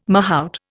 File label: "mahout", Indian